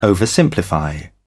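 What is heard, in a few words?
"oversimplify", English, British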